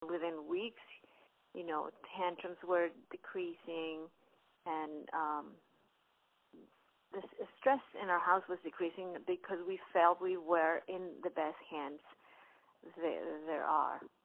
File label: Testimonial